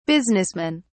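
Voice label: "businessmen", British English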